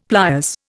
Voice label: "pliers", Indian; Dictionary